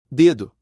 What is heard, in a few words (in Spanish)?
"Dedo" en portugues